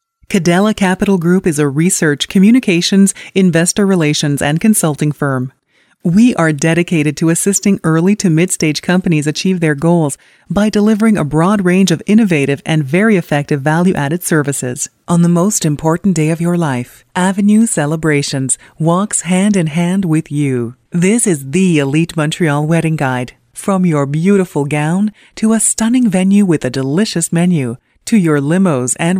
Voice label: Explainer English